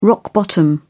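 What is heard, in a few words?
"rock-bottom", British English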